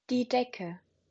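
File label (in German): "Decke", German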